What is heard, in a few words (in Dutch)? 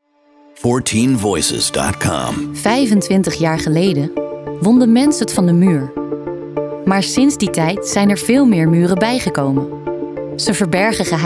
Narratief